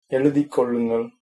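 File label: write, Tamil, male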